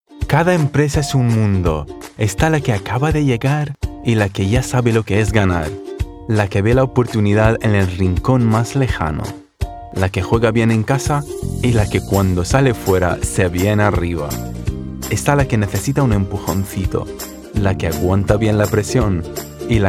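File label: Spanish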